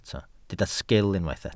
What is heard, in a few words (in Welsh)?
"sgil", Welsh